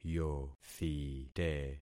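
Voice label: "yothite", concatenated syllables; Affixing experiment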